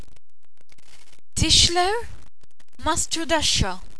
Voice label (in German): tischler, Maltesisch; berufe